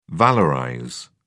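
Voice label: "valorize", British English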